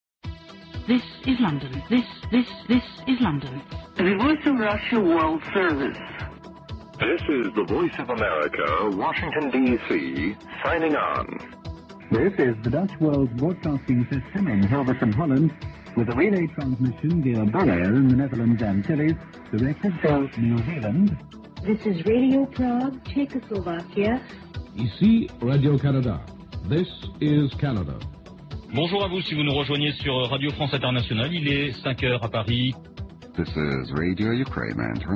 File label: Commercial; Shortwave America Pilot